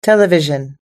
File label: "television", us english